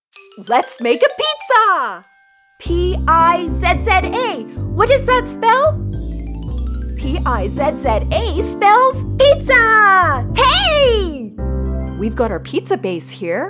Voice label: Mom KIDS GAME